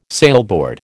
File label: "sailboard", American